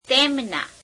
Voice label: gujarati them; તેમના; [teemeneā]